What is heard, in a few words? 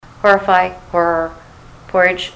horrify horror